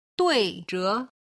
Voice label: "dui zhe", Chinese